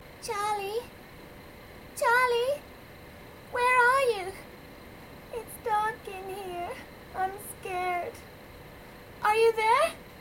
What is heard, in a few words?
Anime Voice Acting